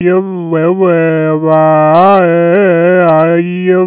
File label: babble vowel Con Space